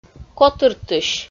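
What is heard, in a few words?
"котыртысь", Komi, female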